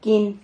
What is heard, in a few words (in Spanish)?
"quin", Silabas